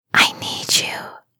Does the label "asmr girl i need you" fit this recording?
Yes